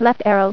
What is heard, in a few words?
"Left Arrow", Voice Female; TalkingKeys